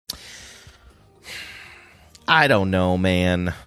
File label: sighing saying i dont know man dejected